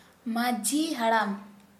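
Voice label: Santali, female, chief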